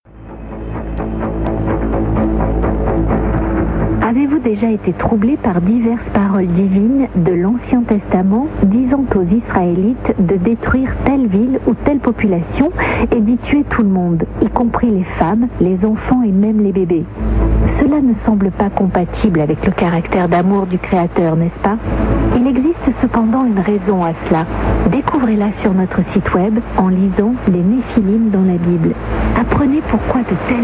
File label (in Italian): 9330 kHz, francese; WBCQ World's Last Chance; Degen DE 1103; filo 5m indoor; St. Ursen